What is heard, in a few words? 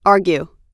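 "argue", US English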